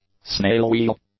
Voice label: "snail wheel", english; nouns

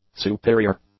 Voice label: "superior", English; adjectives

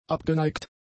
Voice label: "abgeneigt", German; adjectives

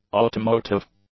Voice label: "automotive", English; adjectives

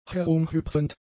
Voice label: "herumhüpfend", German; adjectives

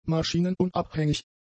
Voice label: "maschinenunabhängig", German; adjectives

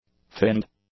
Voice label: "finned", english; adjectives

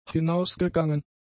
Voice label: "hinausgegangen", German; adjectives

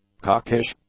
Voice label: "cockish", English; adjectives

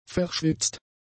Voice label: "verschwitzt", German; adjectives

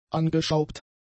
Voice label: "angeschraubt", German; adjectives

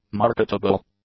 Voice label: "marketable", English; adjectives

